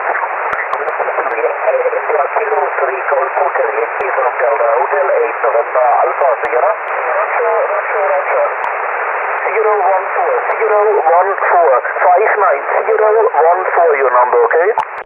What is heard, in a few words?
2320 SSB; IARU 2014